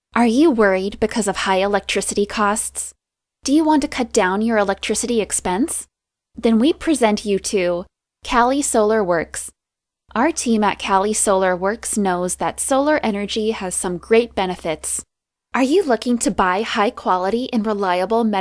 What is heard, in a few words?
USA